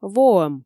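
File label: "воӧм", Komi, female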